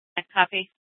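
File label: police department Dispatch, scanner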